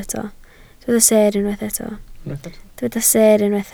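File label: "seer" Welsh, spoken word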